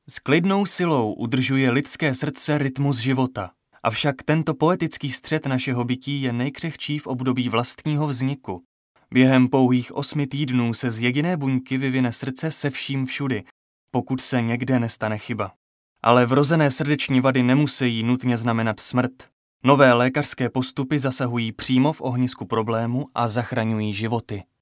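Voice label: male Czech documentary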